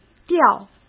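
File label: "掉", Mandarin Chinese; chinese-flashcards; hsk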